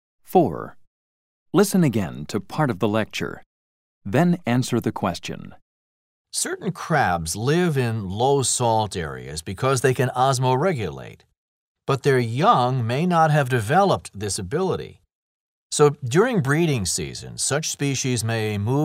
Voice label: TOEFL Level Check Listening